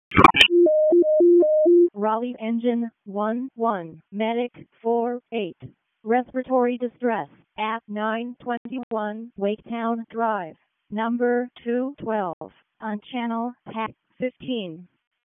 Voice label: EMS ALERT, p25